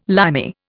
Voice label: "Limey", Indian

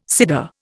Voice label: "cyder", Indian

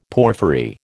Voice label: "porphyry", American

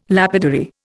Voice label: "lapidary", Indian

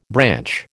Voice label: "branch", American

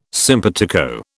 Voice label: "simpatico", British

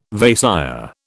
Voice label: "Vaisya", British

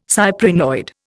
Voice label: "cyprinoid", Indian

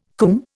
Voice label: "coomb", Indian

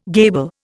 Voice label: "gable", Indian

